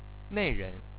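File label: "neiren", Chinese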